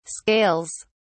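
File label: "scales", British English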